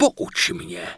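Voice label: Night Elf Male error cant drop soulbound item, Czech; wowpreklad; czwow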